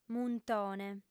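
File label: "muntone", female; M'abìgio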